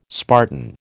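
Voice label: "Spartan", American English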